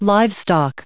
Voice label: "livestock", English

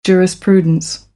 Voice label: "jurisprudence", American; AmericanBritish

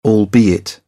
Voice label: "albeit", British; English; ɑː - ɔː

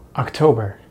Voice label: "October", American English; Vowels in American English; easypronunciation

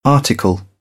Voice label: "article", British; English

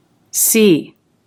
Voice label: "sea", English

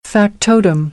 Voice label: "factotum", American; English; oʊ - əʊ

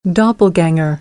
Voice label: "doppelgänger", American; English

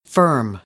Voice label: "firm", American; ɝː - ɜː

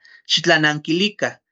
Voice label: respondan ¡xitlanakilika! answer, Náhuatl

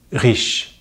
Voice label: "riche", French; Consonantes en francés; easypronunciation

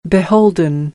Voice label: "beholden", American English; oʊ - əʊ

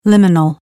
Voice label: "liminal", American; English

